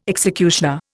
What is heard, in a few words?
"executioner", Indian